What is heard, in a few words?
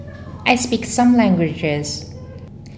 interviewee female